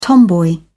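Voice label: "tomboy", British English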